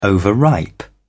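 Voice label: "overripe", English, British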